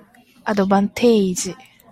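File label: "advantage", Japanese, female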